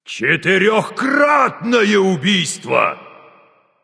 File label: kill, russian; caster